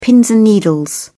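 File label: "pins and needles", British English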